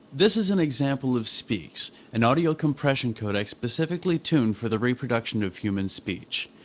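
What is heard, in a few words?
wideband male speex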